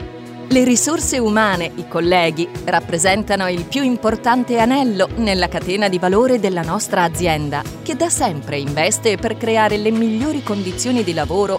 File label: Italian documentaries